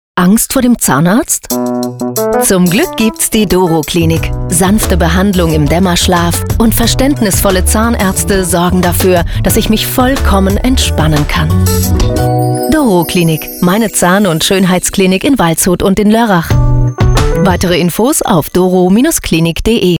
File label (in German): Funkspot; Dorowclinic